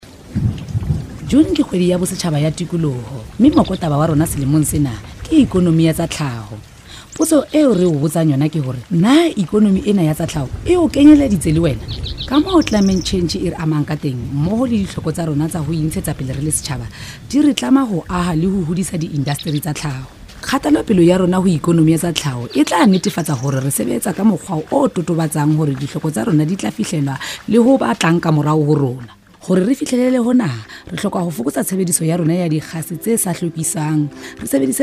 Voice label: southern sotho; Sesotho; National Environment Month 2012